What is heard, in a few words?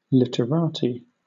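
"literati", British English; pronunciations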